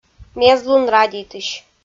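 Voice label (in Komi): "мездлун радейтысь", Komi-Zyrian, female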